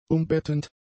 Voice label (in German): "umbettend", German; adjectives